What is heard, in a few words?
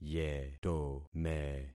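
"yetome", concatenated syllables; Affixing experiment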